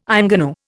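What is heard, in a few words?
"ingénue", Indian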